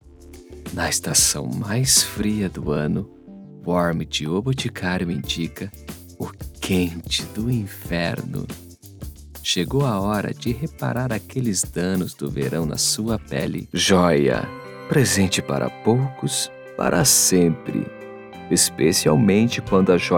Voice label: Rolo voz romântica grave

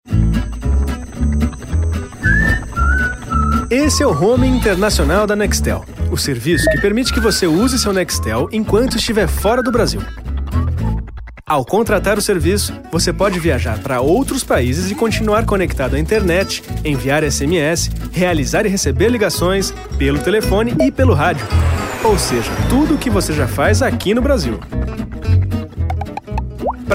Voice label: Tutorial Descritivo

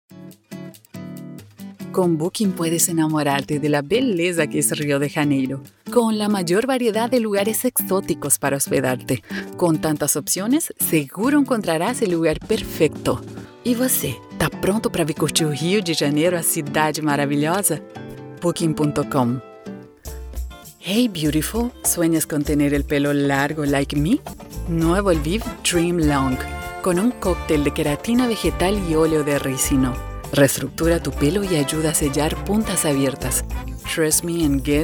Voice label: bilingues

